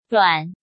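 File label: "Ruǎn", Chinese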